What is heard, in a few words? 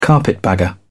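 "carpetbagger", English, British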